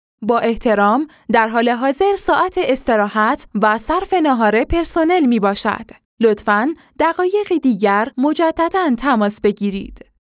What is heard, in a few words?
Women